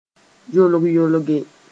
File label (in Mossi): "yolg-yolge", moore